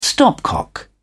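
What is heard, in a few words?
"stopcock", English, British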